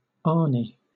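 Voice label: "ani", British English; pronunciations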